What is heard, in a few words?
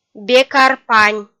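"бекар-пань", Komi, female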